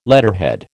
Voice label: "letterhead", American

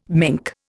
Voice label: "mink", Indian

